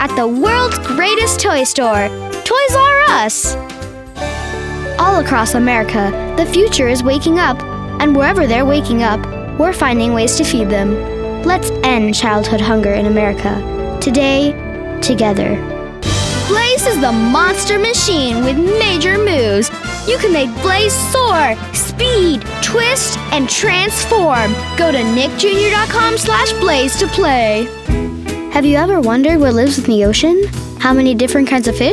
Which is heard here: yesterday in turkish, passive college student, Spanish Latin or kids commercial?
kids commercial